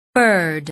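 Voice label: "bird", English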